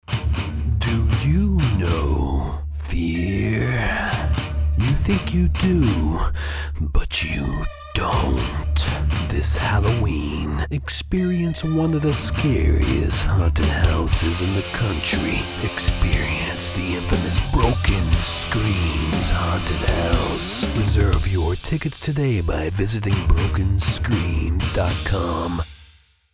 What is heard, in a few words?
Haunted House Voice Over